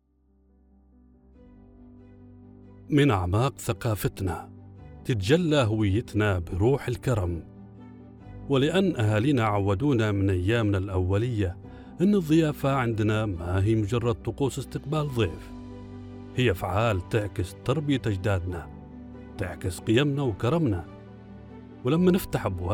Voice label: Saudi

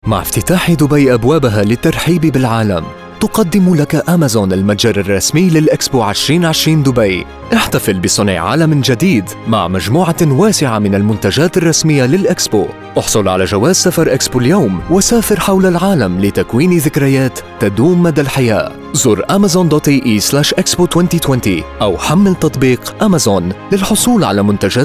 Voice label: MSA Commercial, voiceover

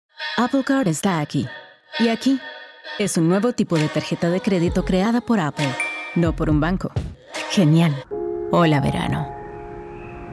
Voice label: Spanish Commercial, Spanish Voiceover; demoreel

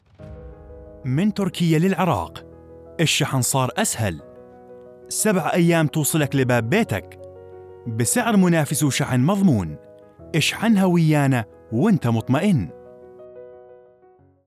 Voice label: iraqi, voiceover

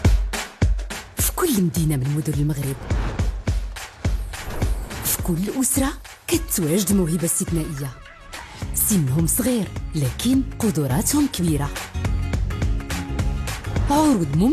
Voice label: Moroccan, voiceover

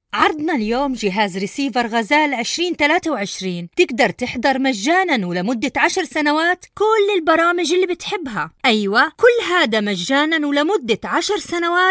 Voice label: Arabic Jordanian; Demoreel; jordanian voiceover

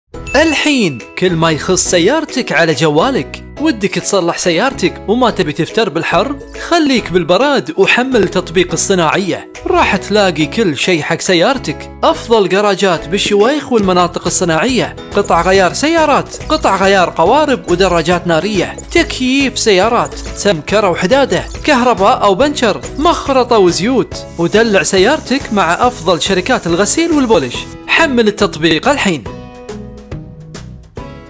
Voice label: kuwaiti voiceover

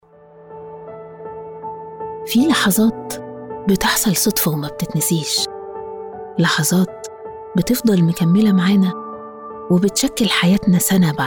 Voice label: Egyptian Commercial; demoreel

